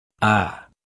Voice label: Phoneme Male; Lynguistykon: Commonscript